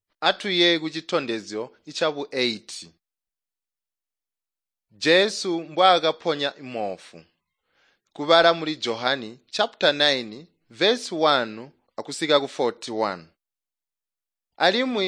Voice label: tonga plateau, drama; chitonga bsz nt drama